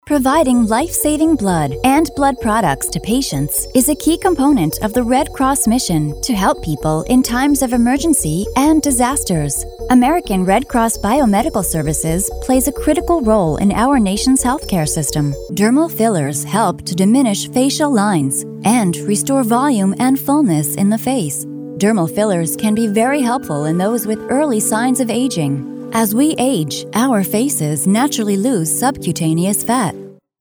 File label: 20s30s Female Narration